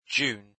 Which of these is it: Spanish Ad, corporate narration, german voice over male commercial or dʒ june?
dʒ june